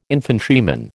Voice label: "infantryman", American

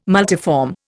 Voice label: "multiform", Indian

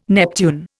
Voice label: "Neptune", Indian

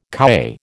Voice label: "kowhai", American

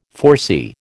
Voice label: "foresee", American

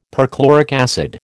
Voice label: "perchloric acid", American

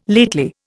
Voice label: "lately", Indian

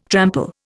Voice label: "trample", Indian

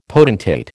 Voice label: "potentate", American

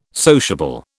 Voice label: "sociable", British

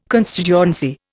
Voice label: "constituency", Indian

